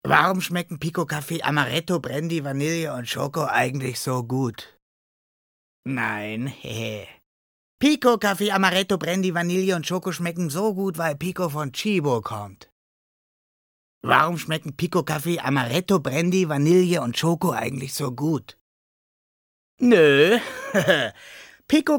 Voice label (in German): off stimmgerecht